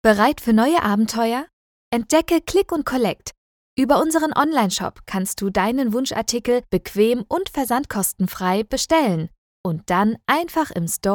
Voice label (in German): Erklärvideo Online Shop